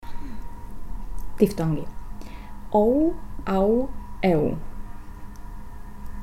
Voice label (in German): Tschech Diphthonge